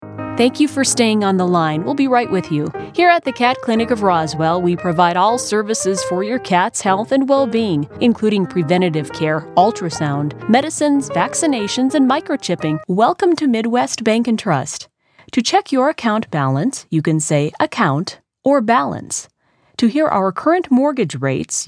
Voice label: Message On Hold Demo